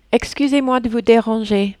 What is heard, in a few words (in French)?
excusez moi de vous deranger, French